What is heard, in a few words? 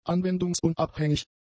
"anwendungsunabhängig", German; adjectives